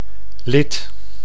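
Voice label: "lid", Dutch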